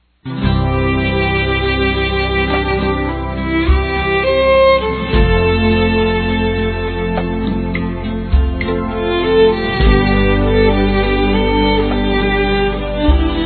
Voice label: PAI F; Aniversários; Aniversário Distante; Fem

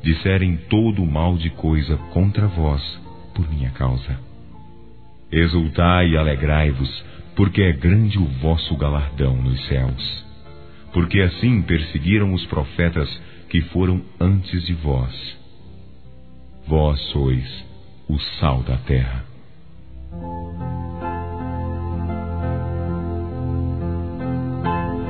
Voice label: Bem Aventurado, Telemensagens Gospel masc

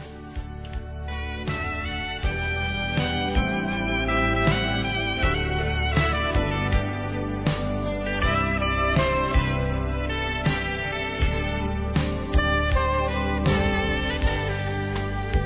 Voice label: CLIENTE AMIGO, Desculpas, masc; Telemensagens 2017

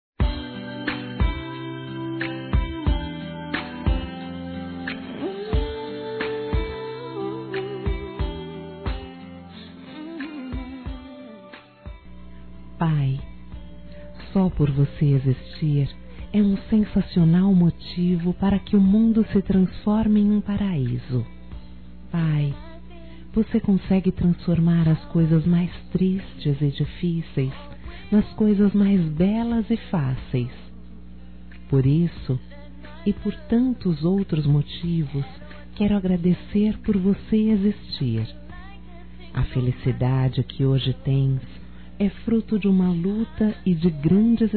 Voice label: AGRADECIMENTO AO PAI, Telemensagens, Dia dos Pais, fem; Telemensagens 2017

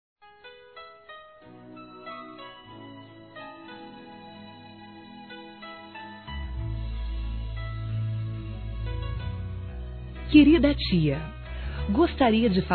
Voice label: TIA F, Telemensagens, Aniversários, Fem; Aniv Familiar

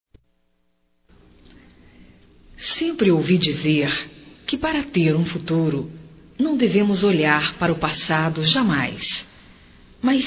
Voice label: Feminino; Amor; Telemensagens 2017

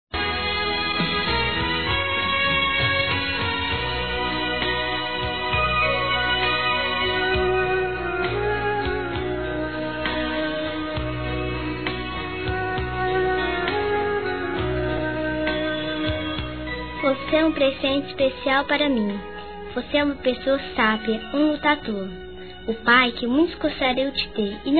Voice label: Aniversário Pai Infantil Feminino; Telemensagens

